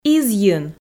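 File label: "изйын", Komi, female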